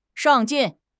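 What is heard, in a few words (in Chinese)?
"上进", Chinese; HSK6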